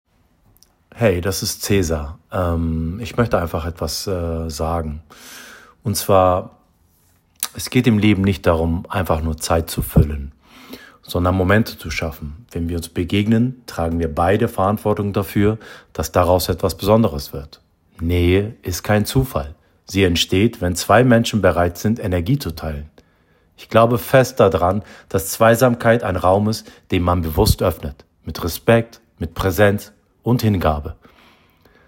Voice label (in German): Escort Mann Audio Vorstellung